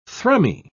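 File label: "thrummy", British English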